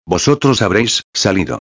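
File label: "habreis salido", Spanish; modos tempos verbais; indicativo; futuro perfecto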